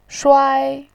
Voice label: "shuai1", voice